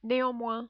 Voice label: "neanmoins", French